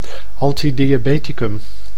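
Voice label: "antidiabeticum", Dutch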